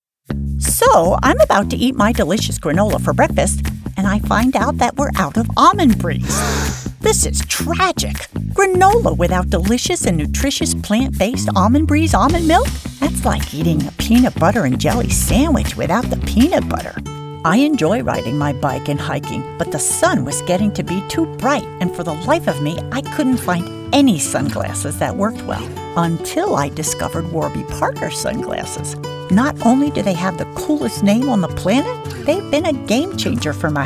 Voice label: COMMERCIAL